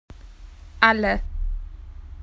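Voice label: "everybody", Australian English; PictureDictionary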